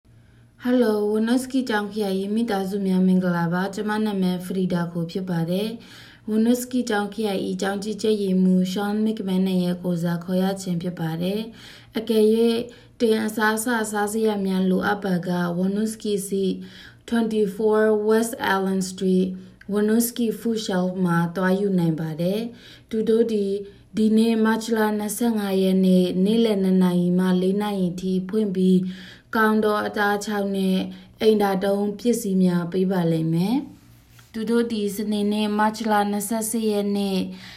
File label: Burmese; foodshelf